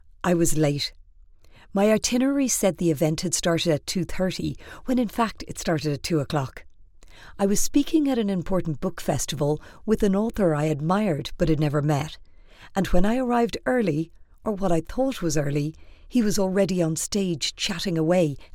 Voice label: Audiobook sample; 20s-30s; Male; Irish

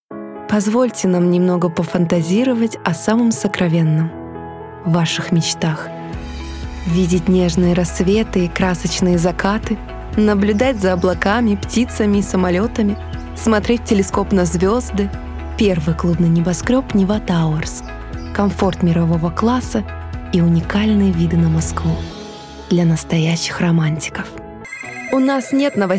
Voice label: Russian, Female, 20s-30s; Eastern European

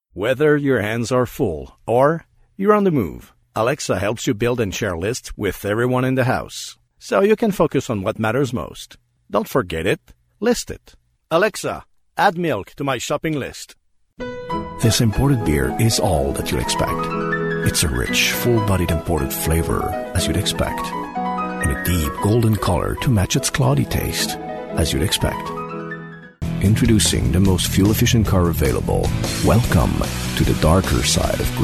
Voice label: English; French-Canadian, Male, 30s-50s

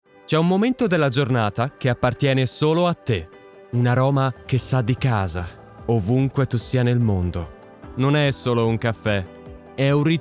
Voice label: Italian

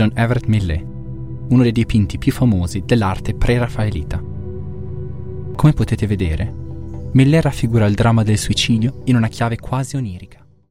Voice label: Italian Voice reel

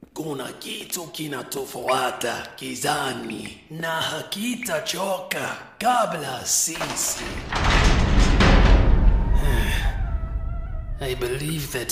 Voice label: Animation

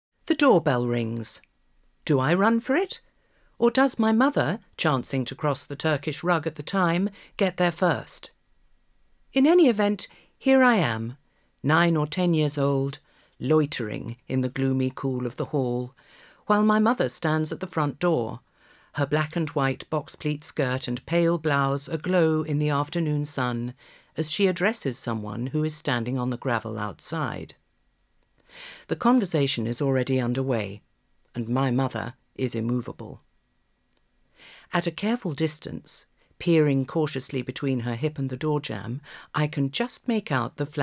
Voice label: Audiobook

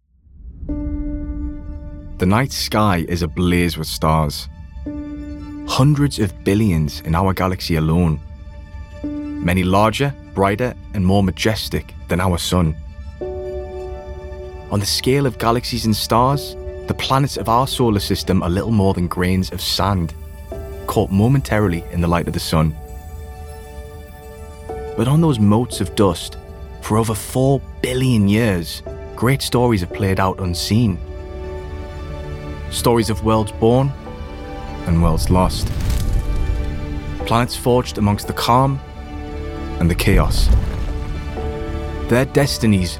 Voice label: Documentary

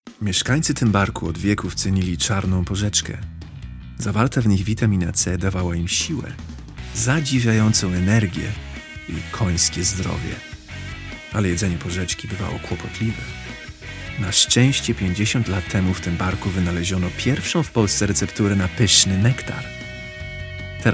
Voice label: Polish

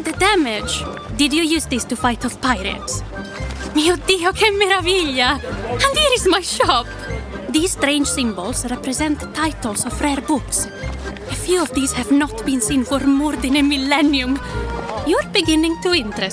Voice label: English Italian accent

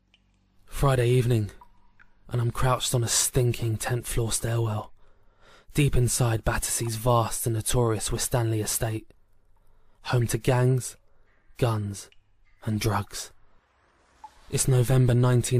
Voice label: Audiobook

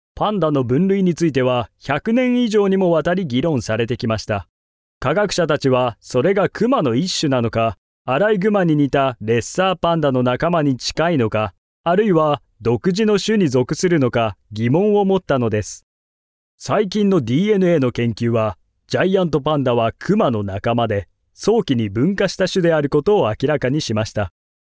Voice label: Home Studio

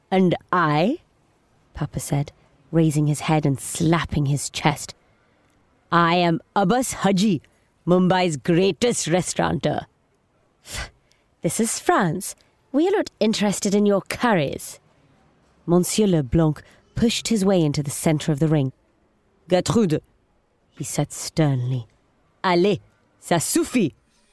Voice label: Audiobook

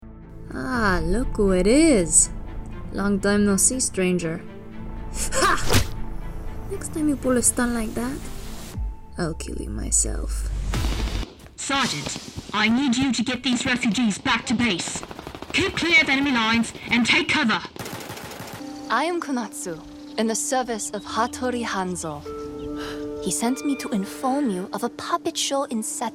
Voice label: Video Game, female

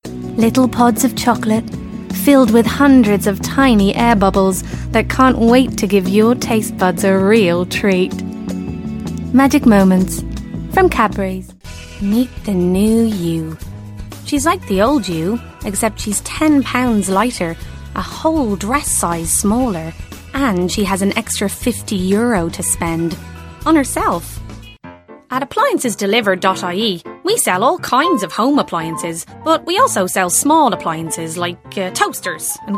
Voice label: Commercials, Yorkshire

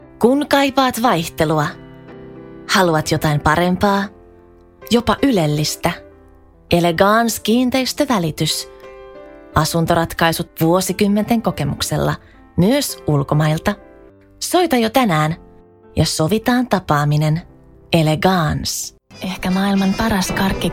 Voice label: Finnish

